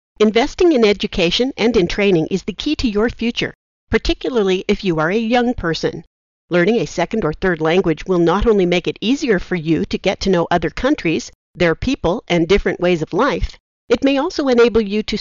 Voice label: 1-minute trial dictation EN